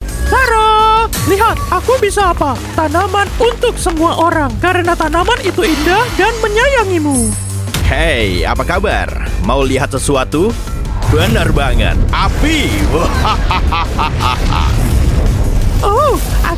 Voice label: Bahasa Indo Youtube Content; 123Go Trends Indonesia